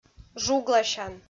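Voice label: "жугласян", Komi-Zyrian, female; audio dict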